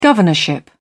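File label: "governorship", English, British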